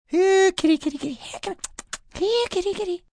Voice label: Here Kitty M, male; Calls, attention; Talking Sound Effects